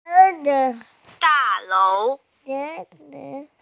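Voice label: "building", Mandarin; da4lou2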